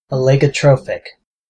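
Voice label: "oligotrophic", American English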